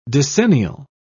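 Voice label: "decennial", English, British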